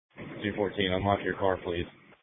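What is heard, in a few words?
Police Patrol Dispatch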